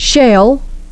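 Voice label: "shale", English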